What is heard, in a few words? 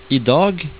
"i dag", Norwegian